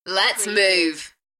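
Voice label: UK female, DJ vocals; Lets move; beanstalk audio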